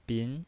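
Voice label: "bin", Mandarin Chinese, second tone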